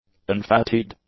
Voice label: "unfatigued", english; adjectives